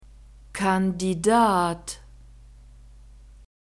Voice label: "kandidat", german; masculine

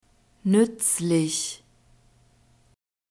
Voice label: "nuetzlich", german; alphabet

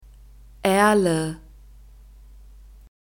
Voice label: "erle", German; nouns gender feminine

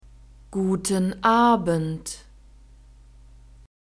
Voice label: "guten abend", German

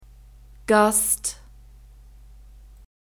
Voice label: "gast", German; phonetic script grouped consonants

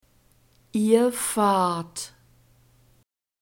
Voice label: "ihr fahrt", German; verbs present tense irregular

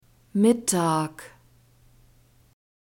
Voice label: "mittag", german; daytimes

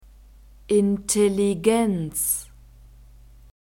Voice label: "intelligenz", German; nouns gender feminine

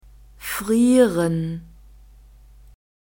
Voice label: "frieren", German; verbs past tense irregular

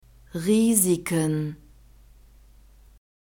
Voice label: "Risiken", German

